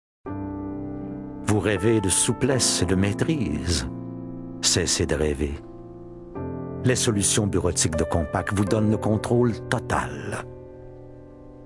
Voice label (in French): voix hommes